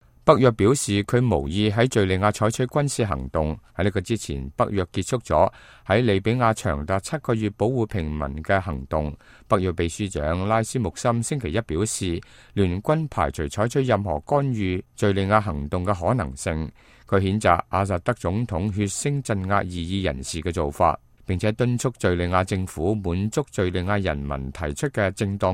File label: cantonese, news